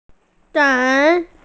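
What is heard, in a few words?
"dei3", Mandarin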